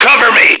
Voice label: cover, radio male